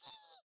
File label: voice echo; HeavenStudioPlus; monkeyWatch